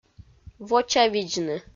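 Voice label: "вочавидзны", Komi, female; audio dict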